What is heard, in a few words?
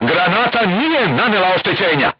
voice message armor not pierce, crew tanks serbian